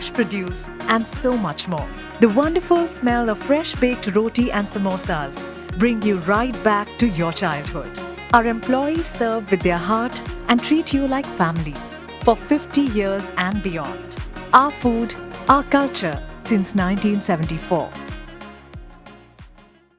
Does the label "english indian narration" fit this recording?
Yes